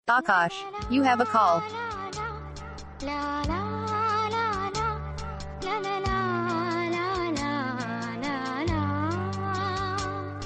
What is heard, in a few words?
you have a call hindi name ringtones